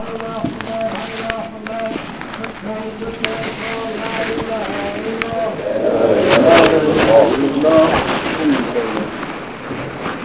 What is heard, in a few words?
Iqamah